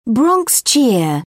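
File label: "bronx cheer", British English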